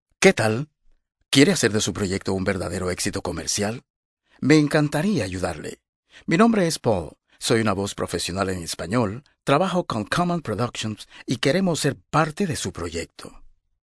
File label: Spanish Male